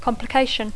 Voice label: "complication", English